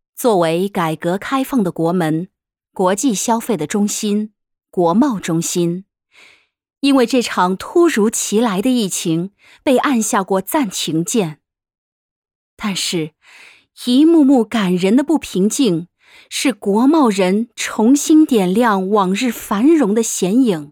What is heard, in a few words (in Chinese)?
普企业宣传